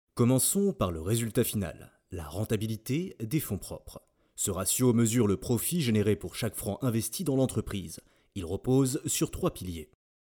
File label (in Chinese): 企业培训